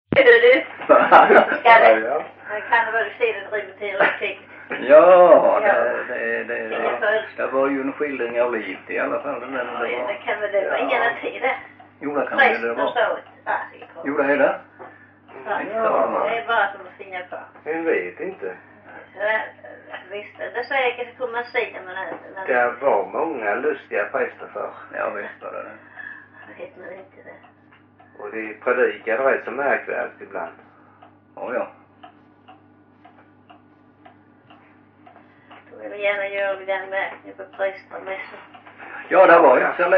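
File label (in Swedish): Loshult Skåne, svenska sagor dialekter; Folkminnen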